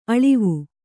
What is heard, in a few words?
"ಅಳ್ವು", Kannada